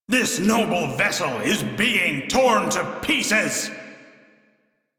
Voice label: Crew Ship Low, Male; Dialog; en; Warframe; Railjack Crew; Arbiters Hexis

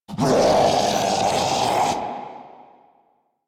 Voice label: Spawn Grineer Ghoul Devourer, en

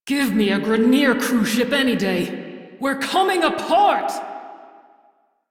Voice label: Crew Ship Low, Female; Railjack Crew; Steel Meridian

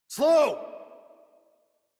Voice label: Horse Stop Adult; Warframe; Duviri

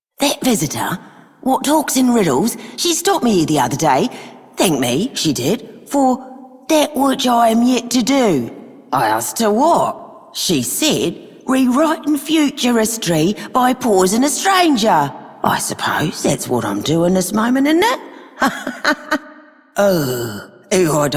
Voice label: female worker; Solaris Venus; Female Two

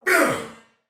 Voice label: Attack Normal Soldier Male, Battle; Dialog; Barks; Sinecure

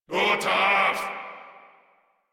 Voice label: Attack, Grineer Male; Warframe

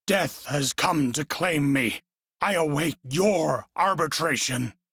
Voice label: Crew Need Revive, Male; Dialog; en; Warframe; Railjack Crew; Arbiters Hexis

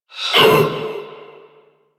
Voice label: Pain; Warframe; Generic Missions; Stalker

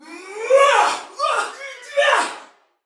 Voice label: Female; Sinecure

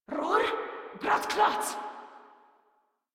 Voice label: Surprised Grineer Female; Warframe

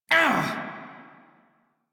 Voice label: Pain Male en; Dialog; Warframe; Railjack Crew; Red Veil

